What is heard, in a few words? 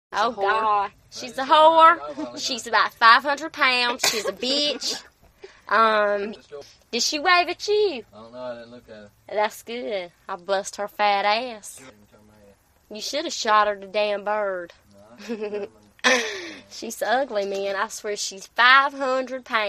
North Carolina WF age 17